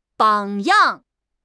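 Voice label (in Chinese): "榜样", Chinese; HSK6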